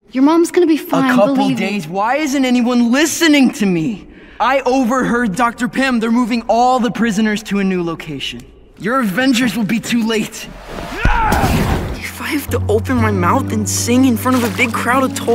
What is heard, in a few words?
animation men